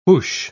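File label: "whoosh", spoken word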